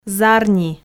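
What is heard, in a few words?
"зарни", Komi, female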